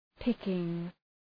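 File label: "picking", English; {‘pıkıŋ}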